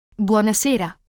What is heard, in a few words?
Italian Good evening; Greetings